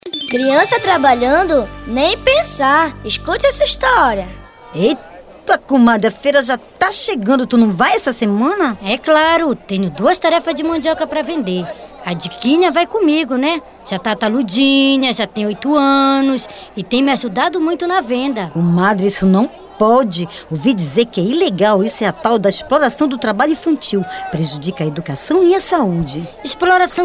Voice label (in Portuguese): Spot; Urbano Trabalho Infantil